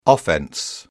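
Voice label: "offense", British English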